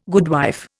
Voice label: "goodwife", Indian

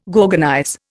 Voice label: "gorgonize", Indian

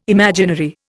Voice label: "imaginary", Indian

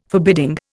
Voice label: "forbidding", Indian

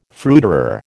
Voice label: "fruiterer", American

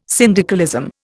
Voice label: "syndicalism", Indian

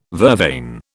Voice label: "vervain", British

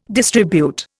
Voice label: "distribute", Indian

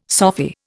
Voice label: "Sophy", Indian

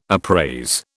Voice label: "appraise", British

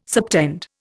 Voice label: "subtend", Indian

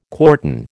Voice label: "quartan", American

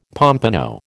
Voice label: "pompano", American

